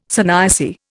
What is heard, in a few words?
"sannyasi", Indian